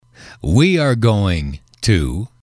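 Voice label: "we are going to", English, male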